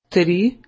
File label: Slovenian, three